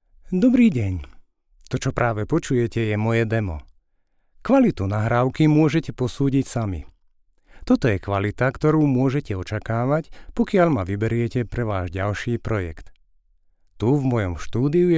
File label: slovak male